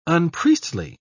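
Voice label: "unpriestly", British English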